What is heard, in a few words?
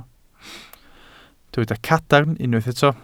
"cadarn", Welsh